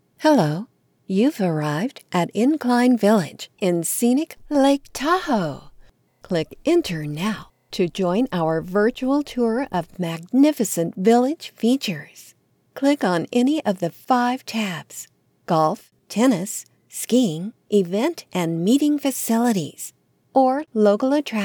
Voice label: E-Learning; Lake Tahoe